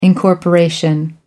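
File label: "incorporation", English, British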